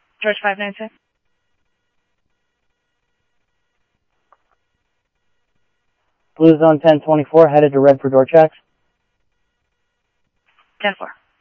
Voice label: Security, scanner audio